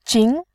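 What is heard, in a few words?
"jin", Mandarin, second tone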